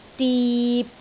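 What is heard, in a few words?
"dip6", Cantonese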